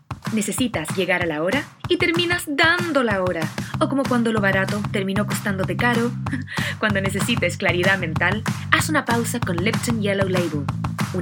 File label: Spanish Latin